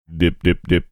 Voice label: saying dip dip dip deep voice

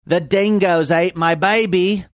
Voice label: dingoes ate my baby Australian

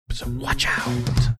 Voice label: whispering so watch out

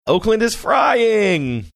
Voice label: saying oakland is frying funny

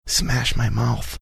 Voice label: whispering smash my mouth

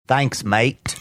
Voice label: saying thanks mate australian accent

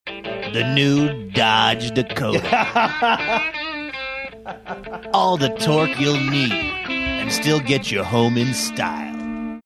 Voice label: truck commercial voiceover; the new dodge dakota

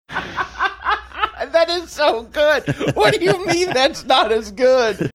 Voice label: laughing saying that is so good what do you mean

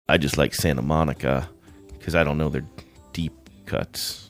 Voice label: quietly saying i just like santa monica

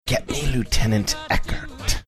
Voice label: quietly saying get me lieutenant; eckhardt

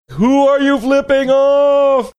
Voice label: drawn out who are you flipping off

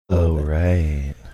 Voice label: saying oh right calm asmr like

